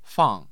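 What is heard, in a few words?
"fang", Mandarin Chinese, tone 4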